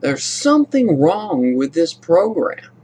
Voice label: something wrong with this program, MALE SPOKEN WORD; MALE MISC